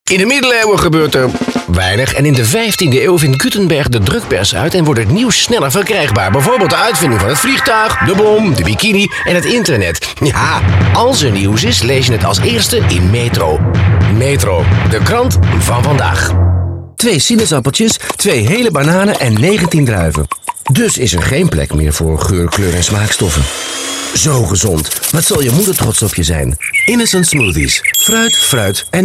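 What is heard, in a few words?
Dutch Commercial; GoLocalise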